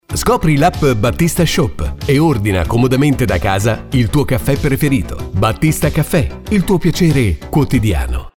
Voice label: spot